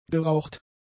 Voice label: "geraucht", German; adjectives